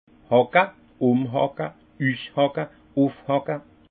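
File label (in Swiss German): "hacke", alsace Haut-Rhin; vin; piocher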